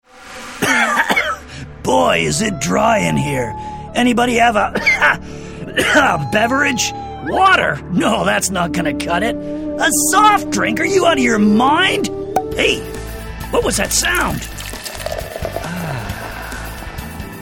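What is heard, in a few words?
American, TV commercial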